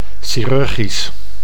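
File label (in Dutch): "chirurgisch", Dutch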